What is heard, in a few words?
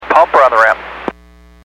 Aviation 122.7; KSTE